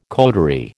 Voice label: "coterie", American